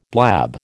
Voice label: "blab", American

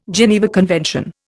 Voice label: "Geneva Convention", Indian

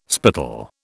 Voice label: "spittle", British

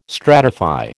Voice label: "stratify", American

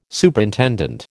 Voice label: "Superintendent", American

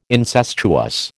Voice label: "incestuous", American

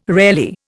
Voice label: "rarely", Indian

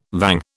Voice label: "vang", British

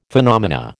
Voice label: "phenomena", American

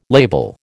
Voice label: "label", American

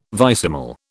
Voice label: "vicesimal", British

